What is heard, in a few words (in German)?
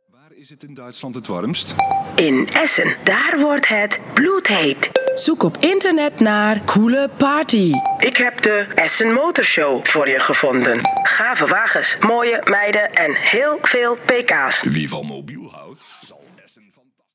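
Dutch, Werbung; sprecher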